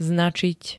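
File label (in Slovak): "značiť", Slovak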